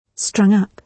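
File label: "strung-up", British English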